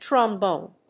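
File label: "trombone", English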